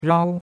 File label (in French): "rao1", chinois; Cours de Chinois